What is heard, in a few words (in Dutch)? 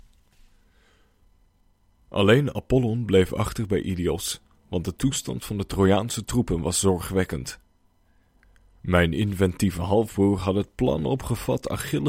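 Dutch, Narrative; GoLocalise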